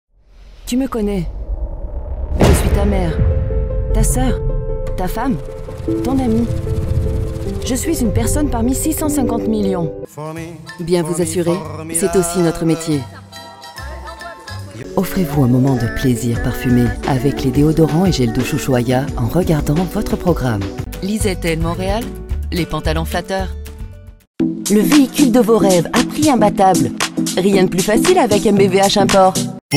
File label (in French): pub voix off; Demos